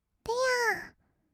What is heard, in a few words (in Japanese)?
"てやー", kawaii voice; 音ゲー